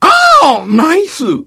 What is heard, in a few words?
engineer cheers